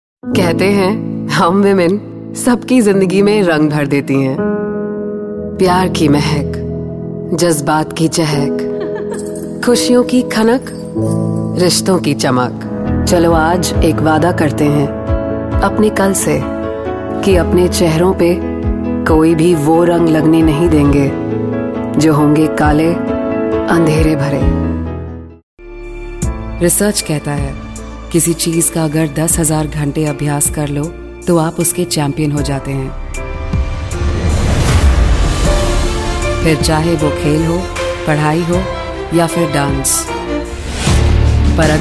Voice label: Hindi Soft

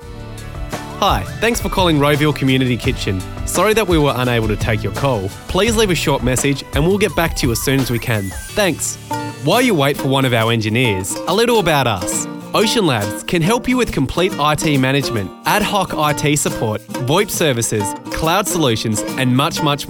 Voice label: On Hold

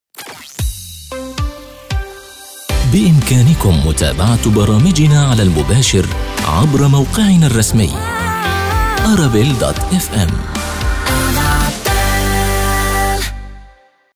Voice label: Radio spot promo

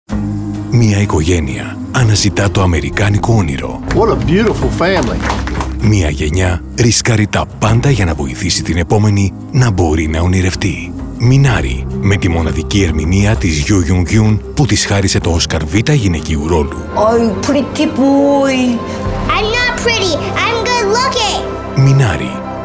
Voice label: Radio Trailer; MINARI

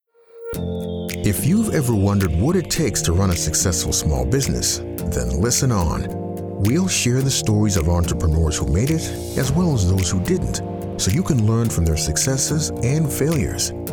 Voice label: Podcast

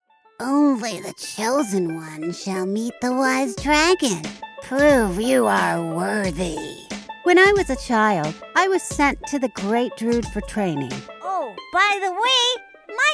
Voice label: char mono music

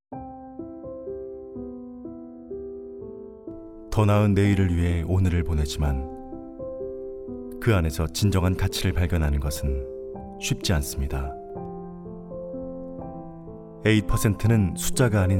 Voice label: Commercial Korean

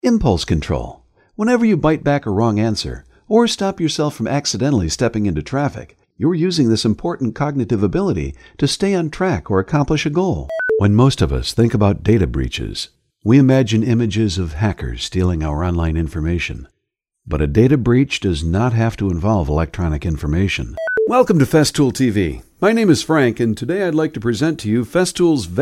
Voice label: Elearning Trainings

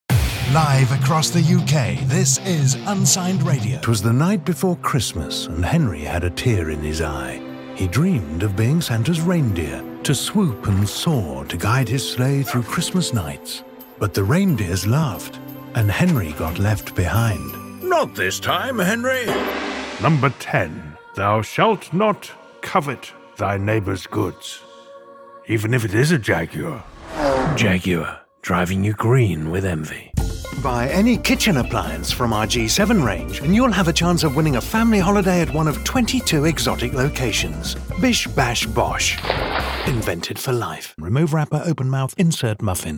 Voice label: Commercial

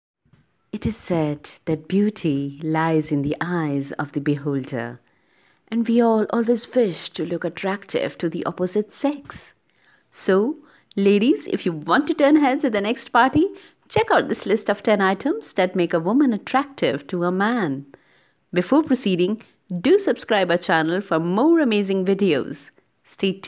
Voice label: Commercial English

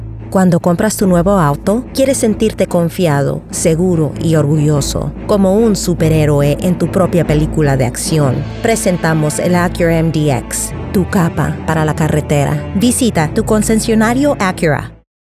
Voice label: Acura SPANISH, Spanish Fun Acura Ad, Female Voice; Television Spots; Spanish Fun Acura Ad Demo

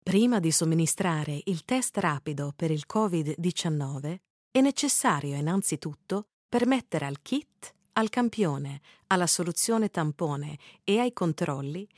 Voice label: Corona virus, ITAL

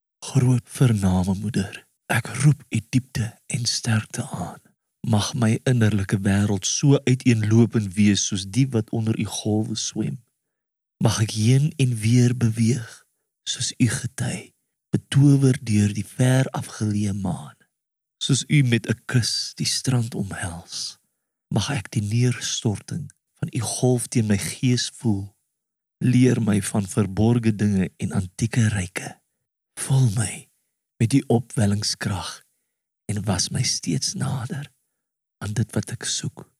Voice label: poem

